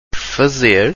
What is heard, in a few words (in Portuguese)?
"fazer", Portuguese